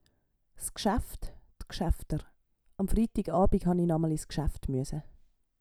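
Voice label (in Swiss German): "s Gschaeft", Swiss German; Wortschatz Kapitel 8; Kapitel 8 Zusatzwortschatz 2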